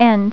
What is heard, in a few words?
"End", Female; TalkingKeys